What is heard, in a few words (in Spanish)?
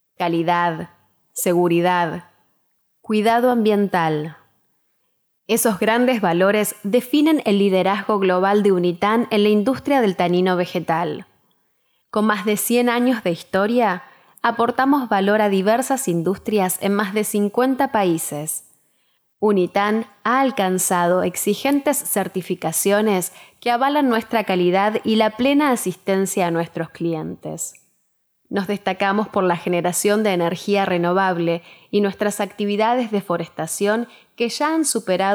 Locutora; Unitan